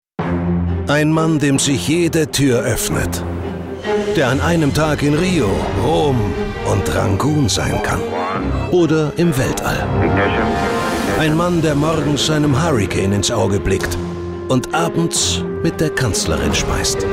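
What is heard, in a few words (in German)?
Werbung, Cool; Fremdtake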